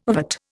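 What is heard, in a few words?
"ovate", Indian English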